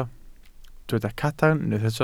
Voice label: "cadarn", Welsh